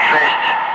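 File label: "5", spoken; Ka-50; Wingman; Digits; DCS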